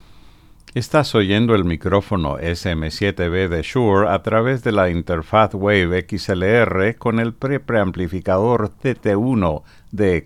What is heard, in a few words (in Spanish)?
XLR SM7B castellano